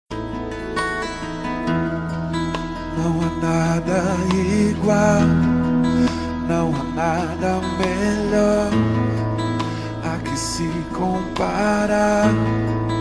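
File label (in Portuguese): birthday NORA male, telemensagem; aniversario genro nora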